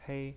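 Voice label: "pei" first tone, pinyin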